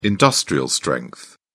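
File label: "industrial-strength", British English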